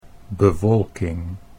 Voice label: "bewolking", Dutch

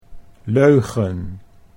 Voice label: "leugen", Dutch; 'lie, untruth'

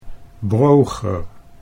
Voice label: "broger", Dutch

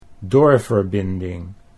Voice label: "doorverbinding", Dutch

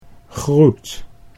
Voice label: "groet", Dutch

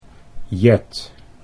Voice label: "Jet", Dutch

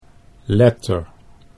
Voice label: "letter", Dutch

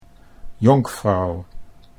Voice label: "jonkvrouw", Dutch

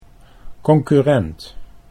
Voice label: "concurrent", Dutch

